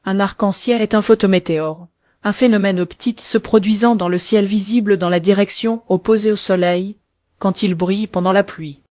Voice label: speaker, fr FR; piper-voices

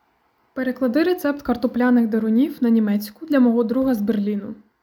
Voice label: ukrainian prompt; aya expanse

